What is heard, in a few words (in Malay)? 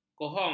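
"kohong", Malay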